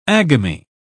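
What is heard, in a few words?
"agamy", British English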